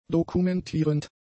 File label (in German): "dokumentierend", German; adjectives